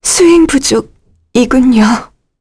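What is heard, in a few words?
voice Dead, Korean; Laias; kingsraid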